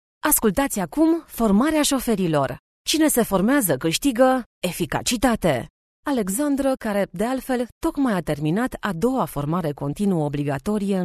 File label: romanian podcasts